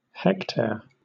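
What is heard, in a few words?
"hectare", British English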